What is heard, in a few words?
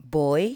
"boy", english